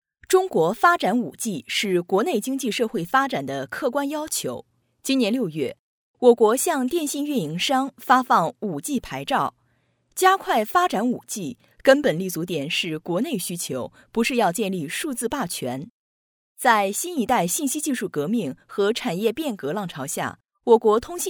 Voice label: 新闻

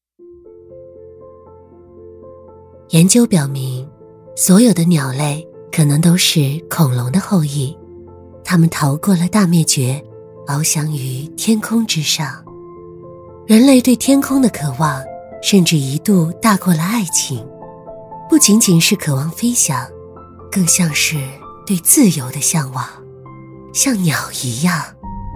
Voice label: 品牌宣传片走心年轻通信类

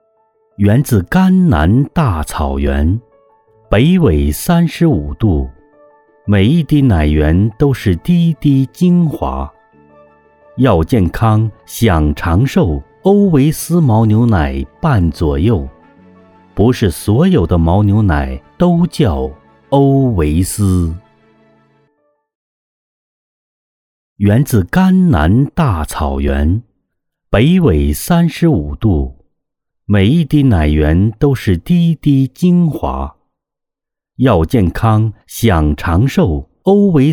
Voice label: 广告类温暖大气牦牛奶

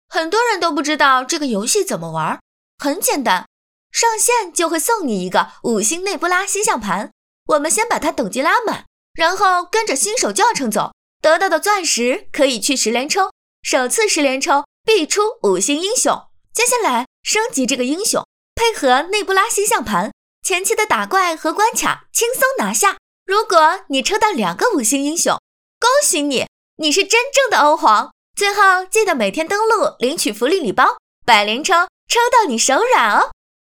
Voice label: 二次元卡牌游戏广告解说

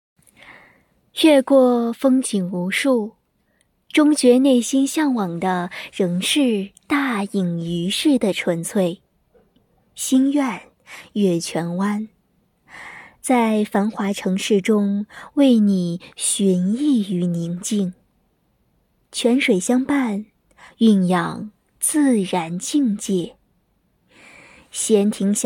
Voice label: 住房广告

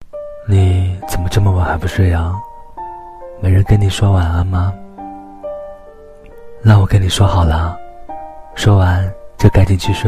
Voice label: 治愈系男音情感配音, 青年, 普通话, 男; 你怎么这么晚还不睡

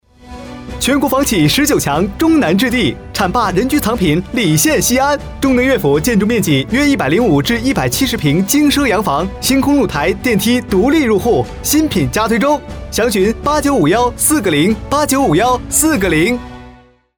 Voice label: 广告年轻地产

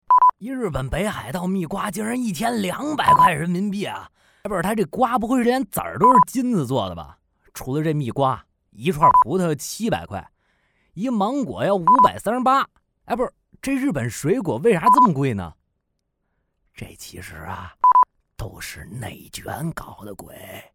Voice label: 方言 水果

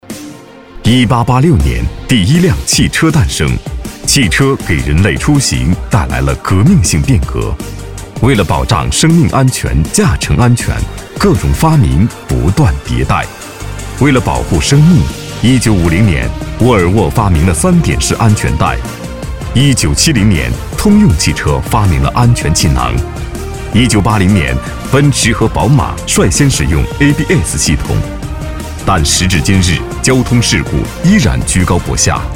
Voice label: 宣传片; 紫光中安

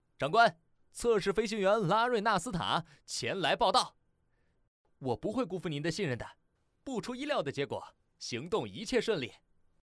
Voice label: 游戏青年音

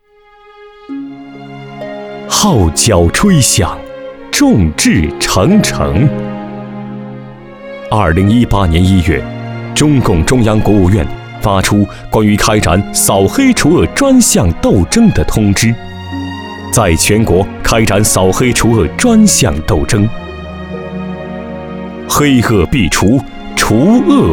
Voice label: 扫黑除恶宣传片

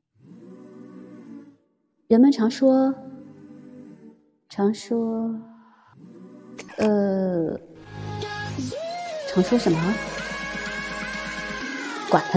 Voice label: 广告 配音

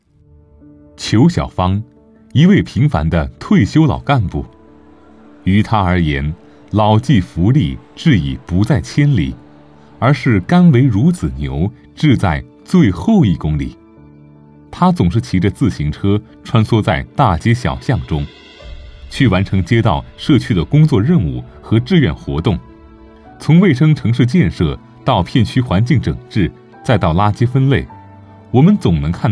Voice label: 新闻稿

